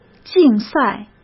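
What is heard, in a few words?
"竞赛", Mandarin Chinese; chinese-flashcards; hsk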